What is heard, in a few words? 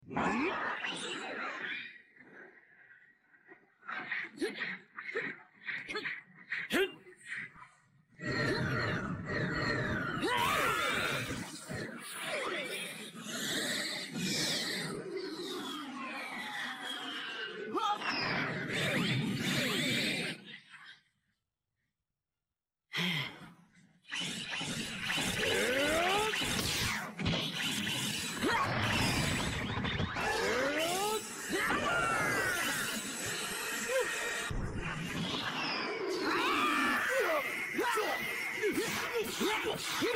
vocals; goku vs hearts cut